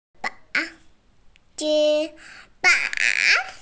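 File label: "chì bǎng", Mandarin Chinese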